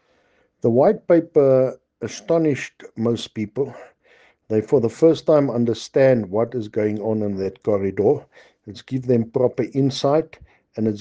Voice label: Response English; Port of Gauteng White Paper